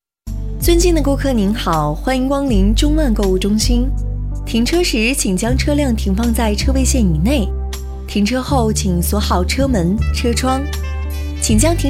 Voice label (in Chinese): 停车场提醒